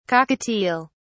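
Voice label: "cockatiel(s)", British English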